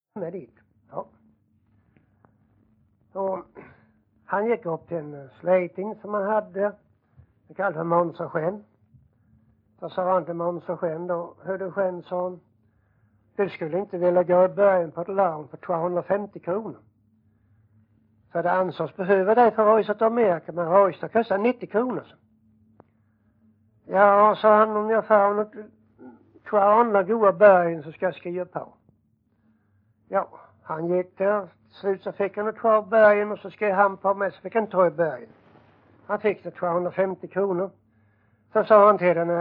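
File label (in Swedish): Jämshög Blekinge, dialekter, svenska sagor; Folkminnen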